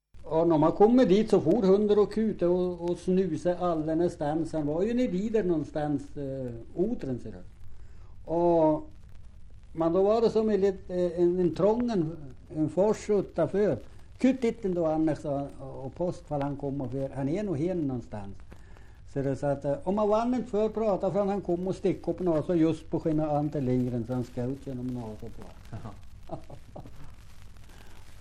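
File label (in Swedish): Hotagen Jämtland, svenska sagor dialekter; Folkminnen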